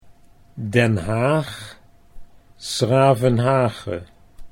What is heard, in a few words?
Den Haag s Gravenhage, Dutch